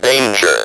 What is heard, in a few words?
"DANGER", Speak & Spell